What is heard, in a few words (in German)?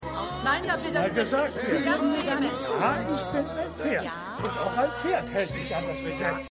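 Büro Anwältin, Pferde Richter, Wissenschafts Richterin, Stimmproben; The Good Fight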